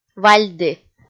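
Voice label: "вальді", Komi, female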